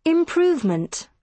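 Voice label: "improvement", British English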